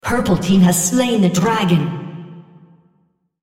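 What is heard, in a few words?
On Kill Dragon purple female spectator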